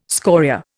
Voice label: "scoria", Indian